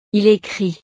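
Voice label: "il ecrit", French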